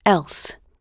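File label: "else", British English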